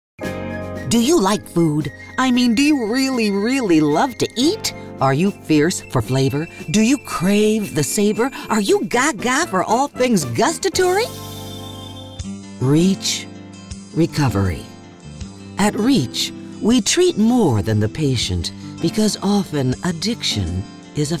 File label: Commercial; Demo